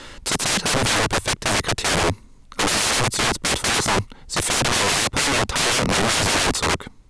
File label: speech 4 bit error